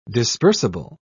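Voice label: "dispersible", English, British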